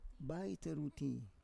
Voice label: "baiteruti", Roon

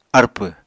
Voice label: "arpw", Apatani

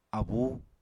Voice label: "abú", Apatani

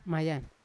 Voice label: "mayan", Yawa

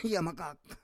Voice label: "yamakak", Roon

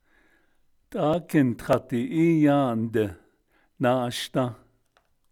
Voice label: I ate in town but I am eating again, Navajo

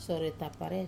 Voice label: "sore tapare" tongue, Wamesa

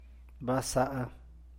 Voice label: "Ba saa", San Mateo Macuilxochitl Zapotec

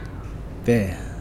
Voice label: "hand", pohnpeian

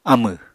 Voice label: "amẁ", Apatani

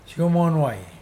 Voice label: "have cold", Mokilese

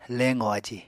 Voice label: "leqò aji", Apatani; Material Culture

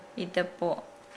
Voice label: Nukuoro; at night

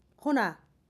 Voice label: "one", jeju

